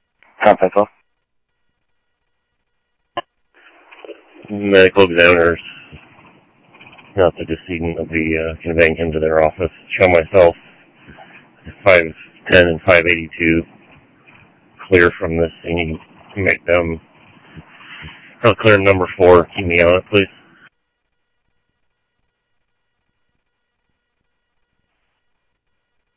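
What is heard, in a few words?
Sheriff Lake Patrol, scanner audio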